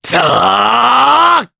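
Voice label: Casting, Japanese; voices; heroes; Mitra; kingsraid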